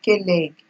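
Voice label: "K-leg", Nigerian Pidgin, female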